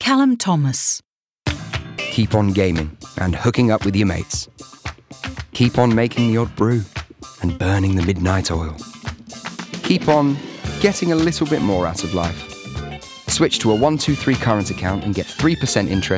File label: uk, commercial